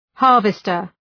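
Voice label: "harvester", English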